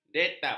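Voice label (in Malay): "detup", Malay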